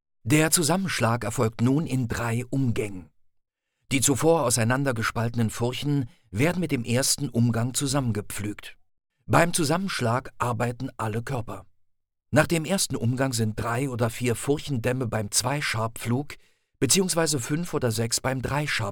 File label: german e-learning